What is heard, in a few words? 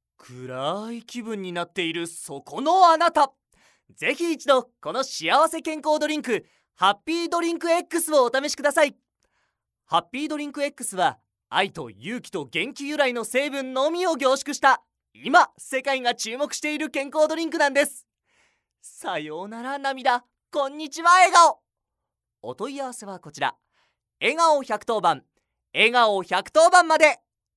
narration